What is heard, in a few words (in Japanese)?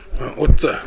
"ottu", minami yamagata